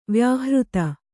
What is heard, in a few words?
"ವ್ಯಾಹೃತಿ", kannada